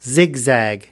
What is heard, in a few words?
"zigzag", us